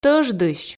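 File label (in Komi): "тӧждысь", Komi, female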